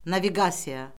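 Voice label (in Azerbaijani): "naviqasiya", Azerbaijani; Orfoqrafiya Lüğəti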